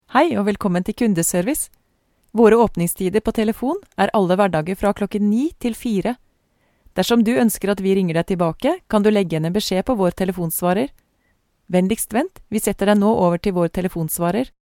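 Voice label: Norwegian, Female; Voice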